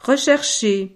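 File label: "rechercher", French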